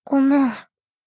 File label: sad sorry